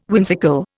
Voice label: "whimsical", Indian